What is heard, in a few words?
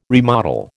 "remodel", American